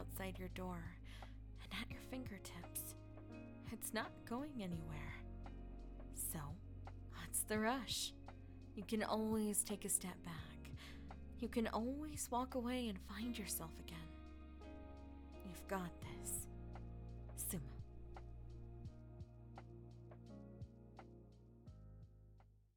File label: Stop and Breathe Positive Message, asmr